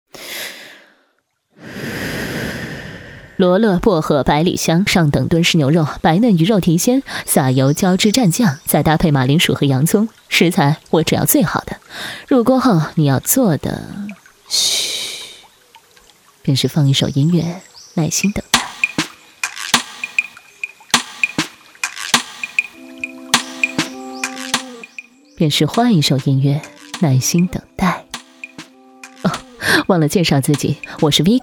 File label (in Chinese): 女 讲述旁白; 环球吃fun密探